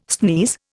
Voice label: "sneeze", Indian